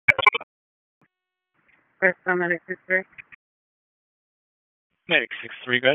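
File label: EMS DISP, radio; wake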